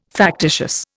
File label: "factitious", Indian